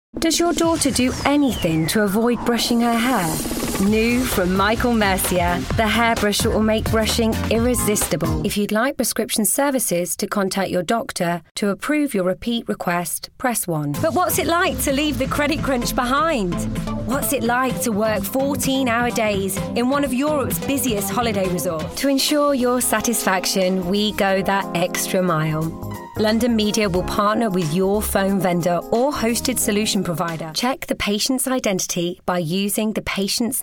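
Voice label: British English General; voice demos; GoLocalise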